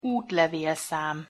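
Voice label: "utlevelszam", Hungarian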